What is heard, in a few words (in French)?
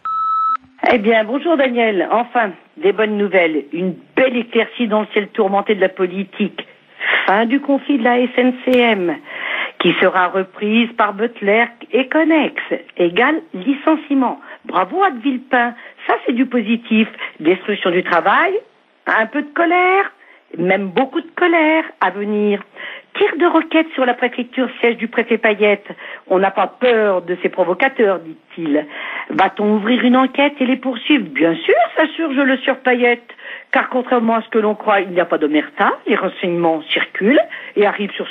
repondeur; Fin du conflit SMCM